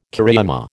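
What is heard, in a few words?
"cariama", American English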